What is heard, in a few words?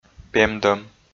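"пемдӧм", Komi, male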